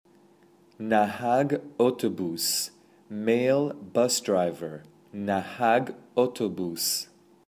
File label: Male Bus Driver